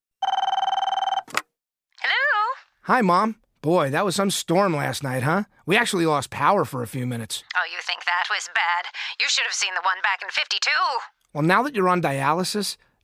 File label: Emerg Prep Commercial